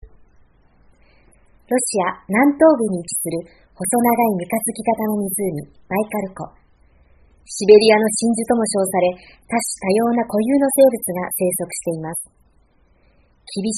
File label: japanese